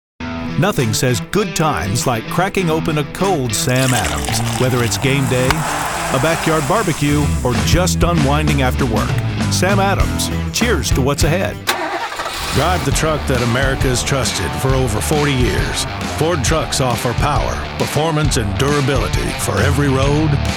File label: Commercial; male voice actor